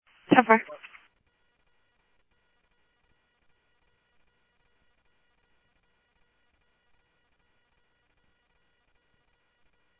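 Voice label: Phoenix police department, scanner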